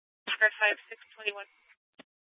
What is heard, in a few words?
AirMed Lifeguard Air Ambulance, Scanner audio recording